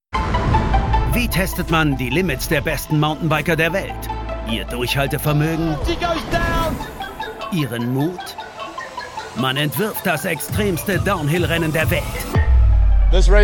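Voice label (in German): Trailer, Sprecher, Männer; RB Hardline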